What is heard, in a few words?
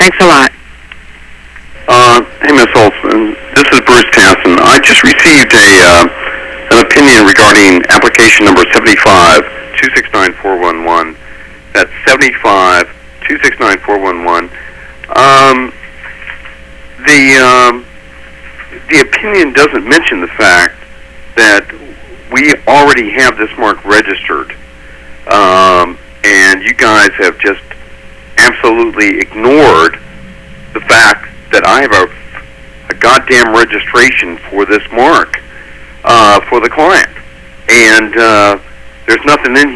voicemail